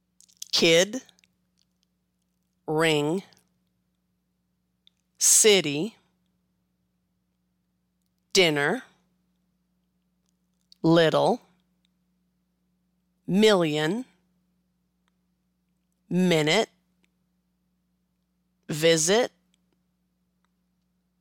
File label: Short I, American English; long and short vowels in American English